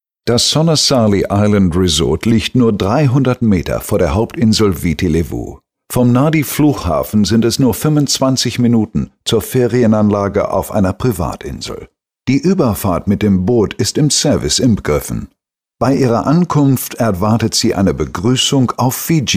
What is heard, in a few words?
german